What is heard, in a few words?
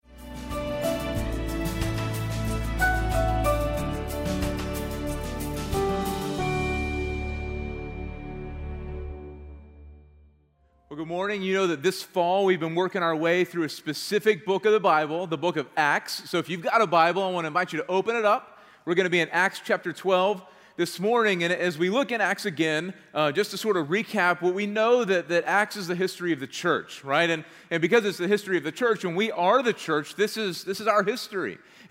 Sermon English